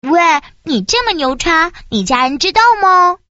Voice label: quick chat voice, girl